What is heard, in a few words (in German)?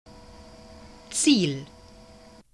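"ziel", German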